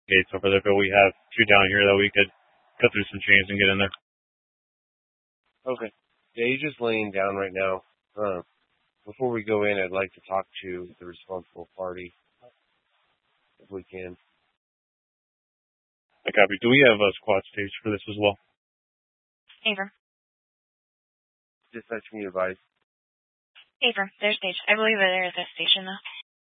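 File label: Police Dispatch, Scanner audio; Police 1 Dispatch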